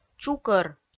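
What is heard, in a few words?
"чукӧр", Komi-Zyrian, female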